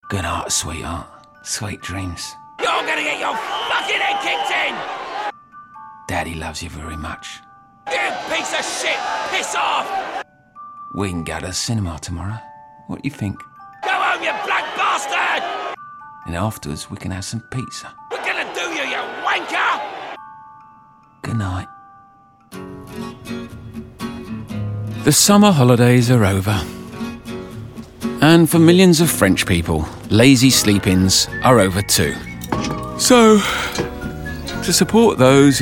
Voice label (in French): MEDLEY comédie